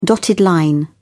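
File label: "dotted line", British English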